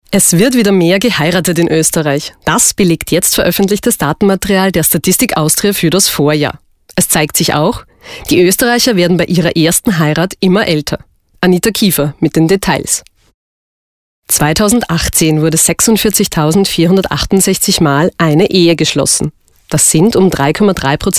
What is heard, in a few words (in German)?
Moderation